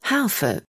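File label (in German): "Harfe", German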